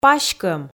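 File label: "паськӧм", Komi, female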